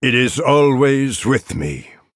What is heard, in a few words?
Male Night Elf